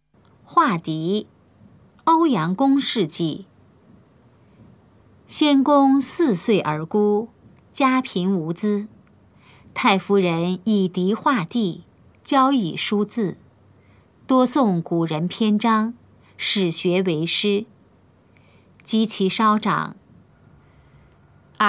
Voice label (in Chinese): putonghua; 畫荻; 普通話